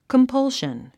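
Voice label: "compulsion", English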